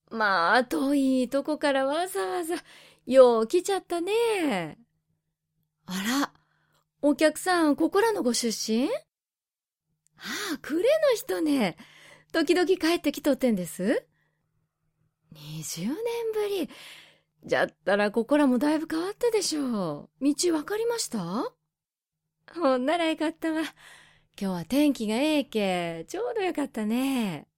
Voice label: dialect